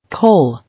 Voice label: "Coal", English

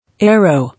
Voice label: "Aer-; Aero-", English

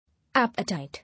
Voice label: "Appetite", English

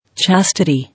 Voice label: "Chastity", English

